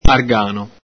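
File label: "argano", Veneto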